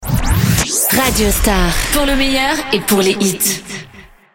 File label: ID SWEEP female; COMBLAGES RADIO STAR; PLEIN COEUR